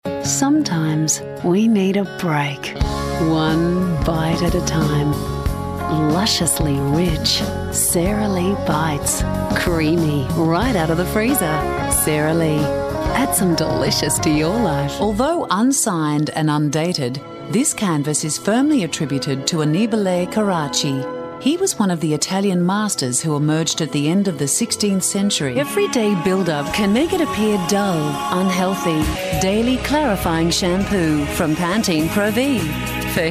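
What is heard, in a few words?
english australian female commercial; voice demos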